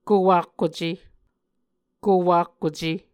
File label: "kowakkuce", Muscogee; Mvskoke Words with Repeated Consonants; A Dictionary of Creek/Muskogee; SIL International